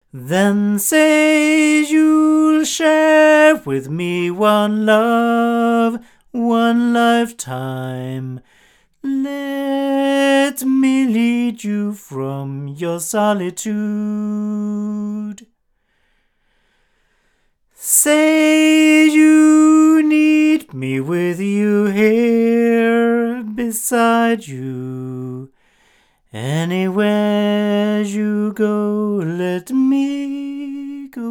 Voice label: A51TL omni male; ADK